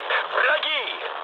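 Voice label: Russian enemy detected mood med, speech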